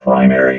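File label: "primary", robot voice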